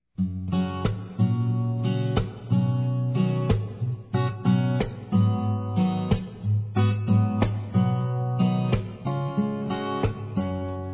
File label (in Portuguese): Irmão igual pai, Telemensagens, Dia dos Pais, fem; Telemensagens 2017